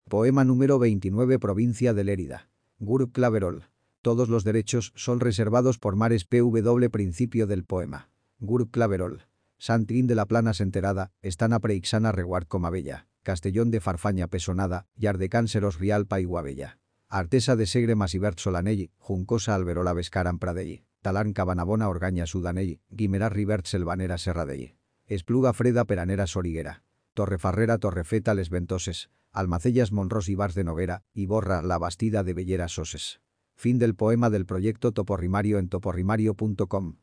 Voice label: es male